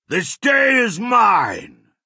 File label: male taunt; Redguard